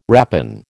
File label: "rapine", American